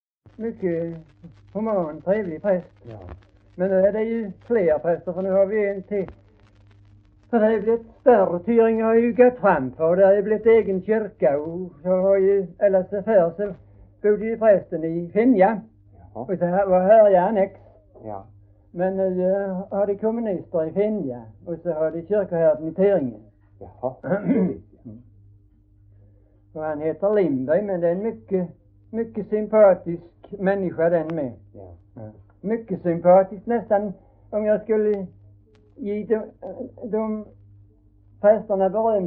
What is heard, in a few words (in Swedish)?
Hörja, dialekter; Folkminnen; Svenska sagor